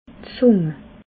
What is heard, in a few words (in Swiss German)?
"zung", alsace